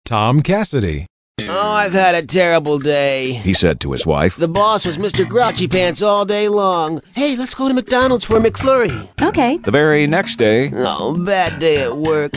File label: WIMPY